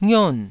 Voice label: "ngion4", Taiwanese syllable